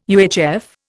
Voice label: "UHF", Indian